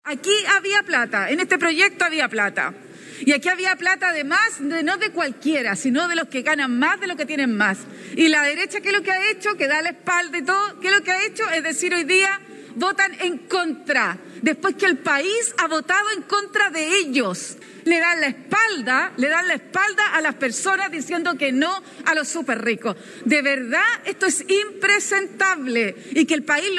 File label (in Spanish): cuña